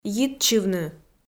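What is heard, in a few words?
"йитчывны" Komi, female; audio dict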